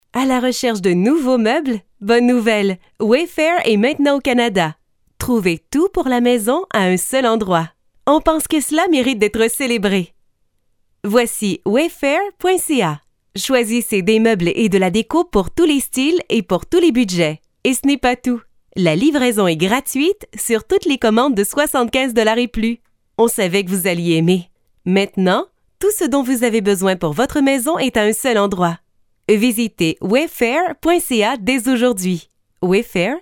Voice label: TV Ad